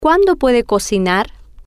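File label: Cuando Puede Cocinar, Spanish, female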